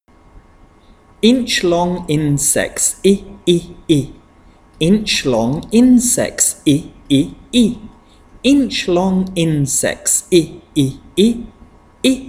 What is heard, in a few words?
vowel chant i